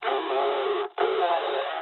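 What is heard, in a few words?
damaged pilot, speech japanese; War Thunder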